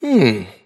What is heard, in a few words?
happy; davion; dota; dragon knight